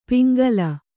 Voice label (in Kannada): "ಪಿಂಗಲ", Kannada